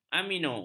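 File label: "amino", Malay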